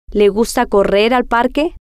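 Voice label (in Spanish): le Gusta Correr Parque, spanish, female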